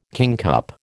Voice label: "kingcup", American

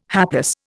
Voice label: "hapless", Indian

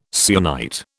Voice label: "syenite", British

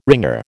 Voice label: "wringer", American

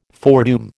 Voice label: "foredoom", American

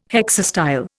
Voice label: "hexastyle", Indian

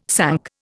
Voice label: "sunk", Indian

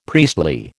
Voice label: "priestly", American

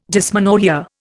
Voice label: "dysmenorrhoea", Indian

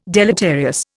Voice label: "deleterious", Indian

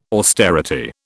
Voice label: "austerity", British

